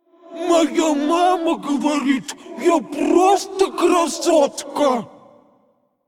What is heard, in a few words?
Female Faceless Play